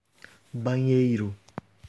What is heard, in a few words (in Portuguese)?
banheiro brazil